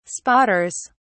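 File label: "spotters", British English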